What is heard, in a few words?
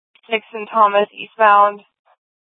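Scottsdale Police South Dispatch; Scttsdle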